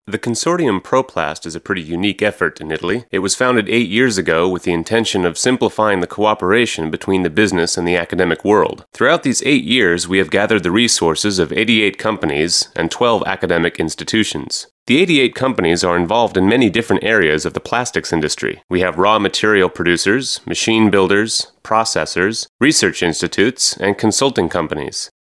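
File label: male English documentary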